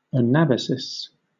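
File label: "anabasis", British English; pronunciations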